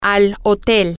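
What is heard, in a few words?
to the hotel, spanish, female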